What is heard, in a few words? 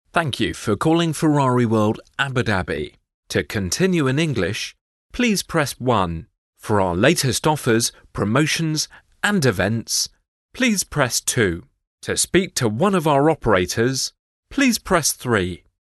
IVR; Ferrari